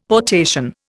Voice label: "potation", Indian; Dictionary